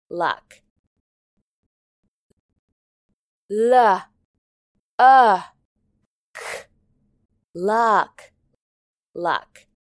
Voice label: "luck", English